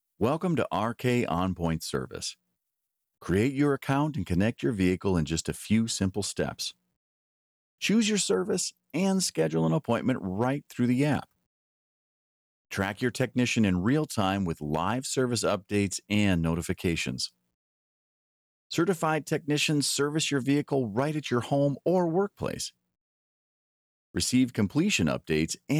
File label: 30 Second Instructional Video